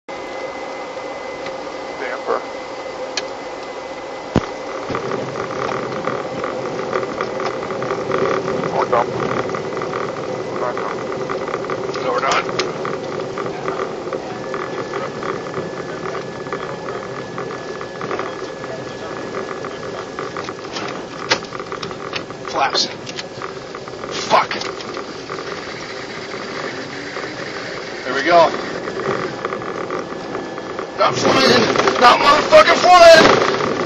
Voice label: cvr, airline blackbox recordings; east coast jet flight 81